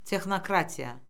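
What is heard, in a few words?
"texnokratiya", Azerbaijani; Orfoqrafiya Lüğəti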